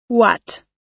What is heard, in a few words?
"what", us